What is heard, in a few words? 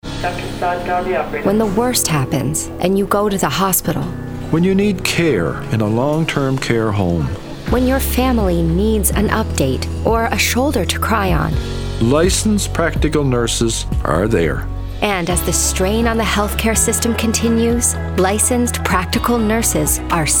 Thank You Ad